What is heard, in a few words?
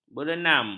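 "berenam", Malay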